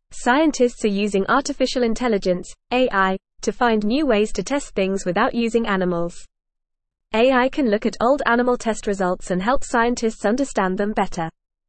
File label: English FAST Reading; Smart Computers Help Scientists Stop Animal Testing